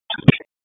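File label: Raleigh Police Southeast District Tactical, p25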